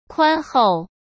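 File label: "宽厚", Mandarin Chinese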